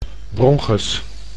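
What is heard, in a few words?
"bronchus", Dutch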